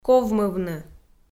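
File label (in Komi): "ковмывны", Komi, female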